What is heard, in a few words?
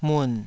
"mun3", taiwanese syllables